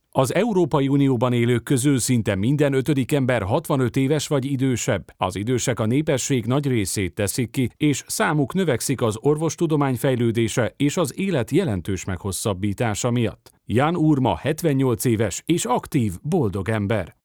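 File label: Hungarian, male, E learning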